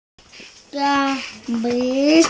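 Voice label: "xia1mi5", Mandarin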